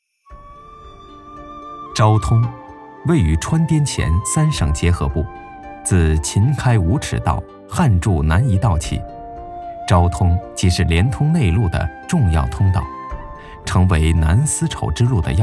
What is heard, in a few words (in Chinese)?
公益广告; 昭通央视版